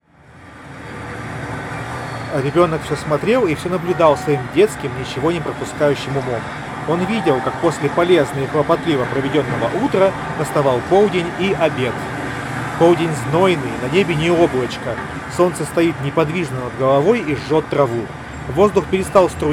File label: Recorder noise; sony wf-1000xm6